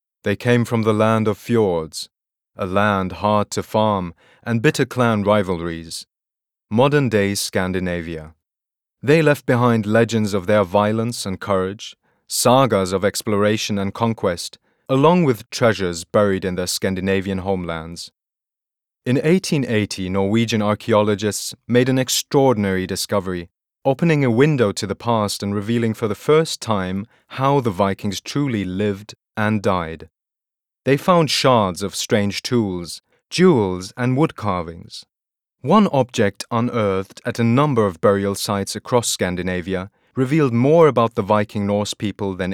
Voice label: Doku English